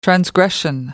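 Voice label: "transgression", British English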